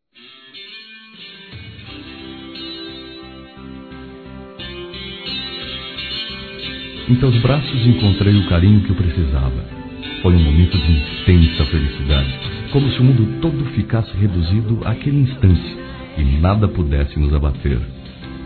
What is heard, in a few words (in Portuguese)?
Nossa Noite foi Maravilhosa Masculino